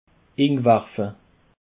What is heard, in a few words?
"inwerfe", Alsace